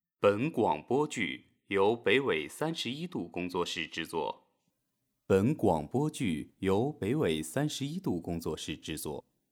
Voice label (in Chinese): 播音腔报幕